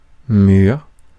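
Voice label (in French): "mur", French; Mots francais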